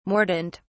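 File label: "mordent", British English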